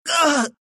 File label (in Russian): pain ru; Dota 2